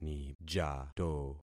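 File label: "nijato", concatenated syllables; Affixing experiment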